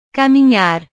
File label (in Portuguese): "caminhar", portugues